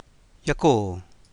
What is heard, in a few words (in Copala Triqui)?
"yacoo̱", Copala Triqui